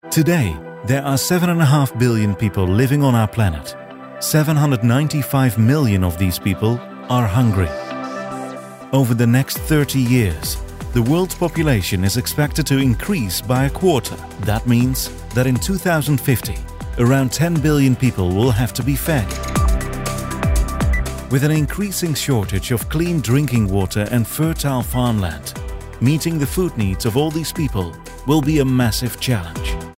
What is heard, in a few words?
Documentary English